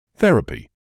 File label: "therapy", English